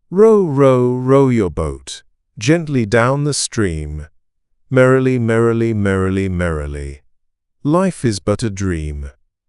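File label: male British, classic rhymes; Row Row Row Your Boat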